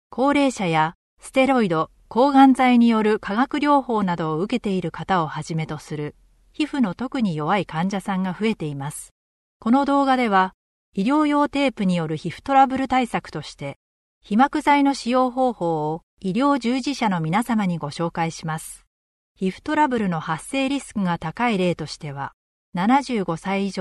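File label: Japanese, female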